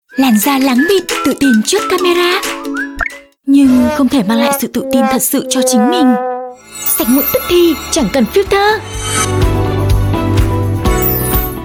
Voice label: North TVC Female, North Vietnamese; Cỏ Mềm home lab

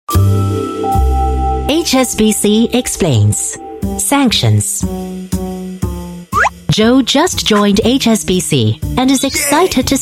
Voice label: Asian English Corporate; HSBC Sanctions

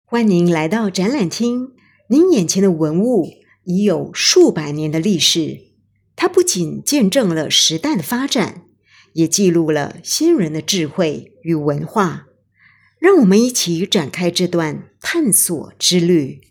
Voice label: Mandarin

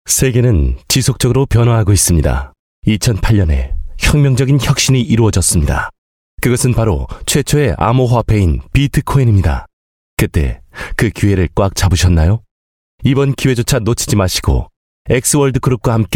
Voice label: Korean

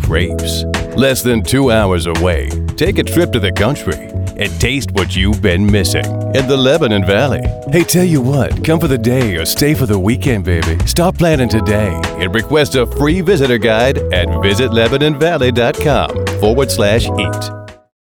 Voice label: US English Sweet Love; Lebanon Valley Balogna

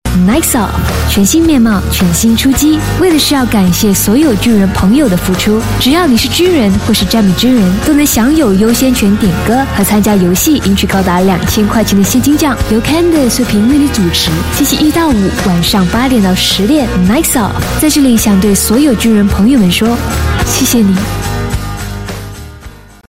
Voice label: Trailer Mandarin, China Female

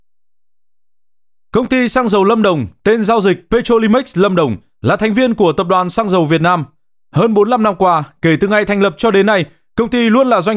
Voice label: Vietnamese North Male; Petrolimex

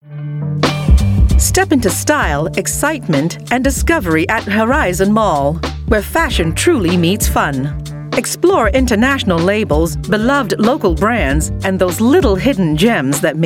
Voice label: US English; Horizon Mall

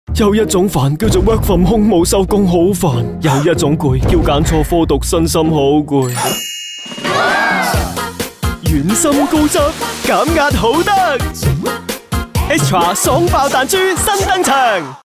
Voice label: Cantonese

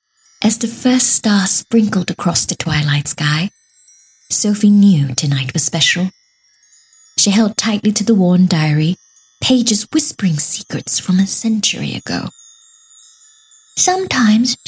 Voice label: Asian English Fantasy Book Narration

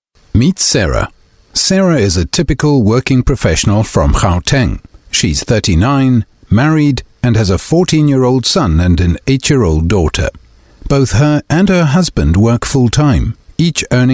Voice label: African English Voice, South African Male

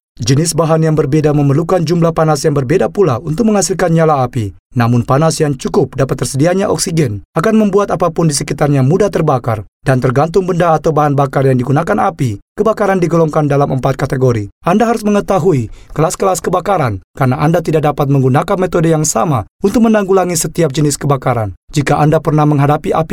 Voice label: Bahasa Corporate VO

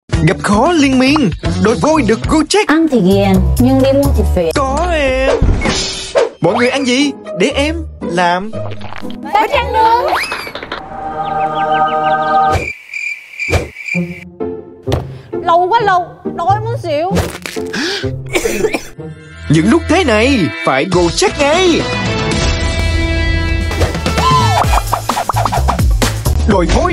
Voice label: South Vietnamese Male, voice samples; Gojek

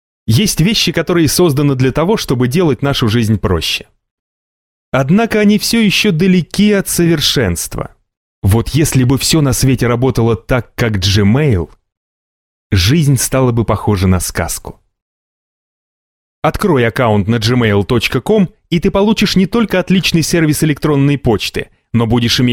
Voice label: Russian Male Narration; Gmail

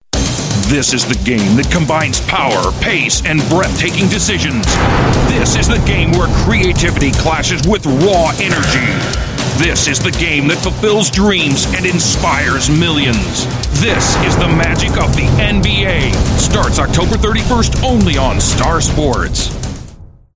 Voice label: US English; NBA

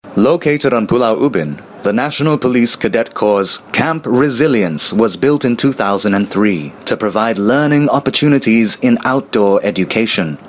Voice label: Asian English; NPCC

